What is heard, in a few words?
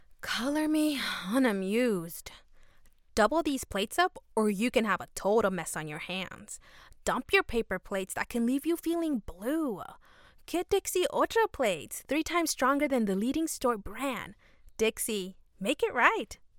Commercial Sample; Voiceover Actor